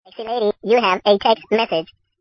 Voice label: sexy lady you have a text message

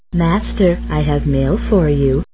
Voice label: Soft Lady Mail Notif

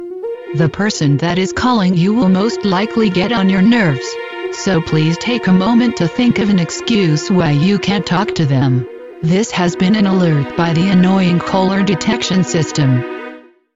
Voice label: S4 System Voice

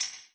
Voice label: whisper

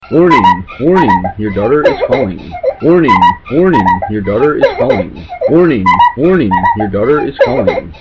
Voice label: warning daughter, funny

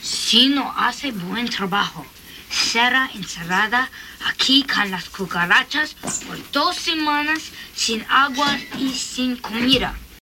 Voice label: spanish

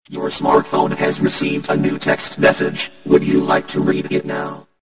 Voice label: robot sms voice alert

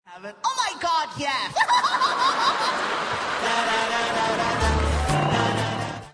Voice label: "drive thru voice" omg yes, ringtones

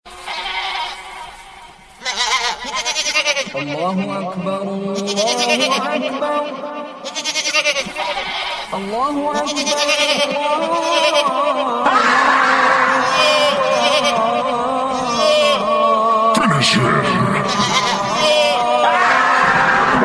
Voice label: Allauh Akbar Funny

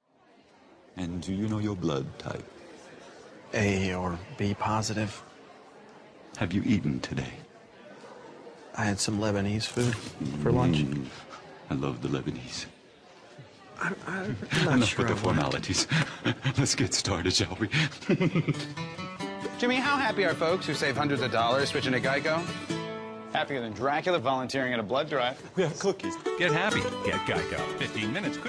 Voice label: commercial; geico dracula; happier than dracula volunteering at a blood drive

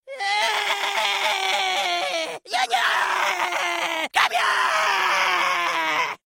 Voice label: yelling

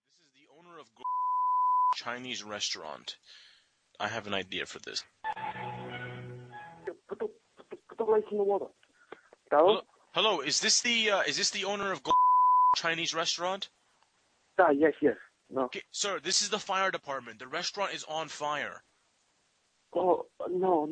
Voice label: prank call; restaraunt fire

